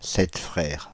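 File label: "Sept-Frères", French, Paris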